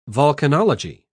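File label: "vulcanology", British English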